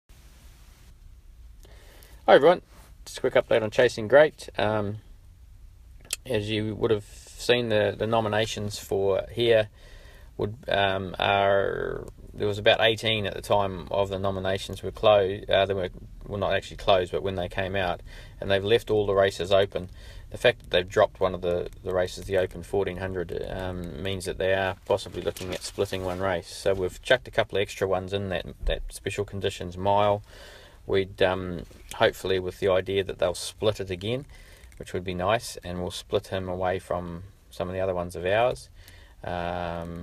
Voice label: voice note; Chasing Great